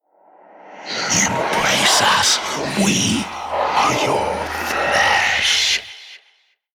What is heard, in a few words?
Whispers